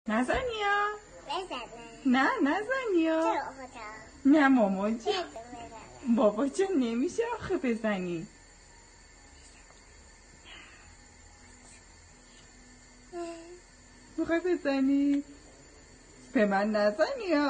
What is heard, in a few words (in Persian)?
دختر بچه